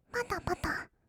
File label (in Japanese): "ぱたぱた", kawaii voice; 1200 kawaii voice!!; 色々なワンショット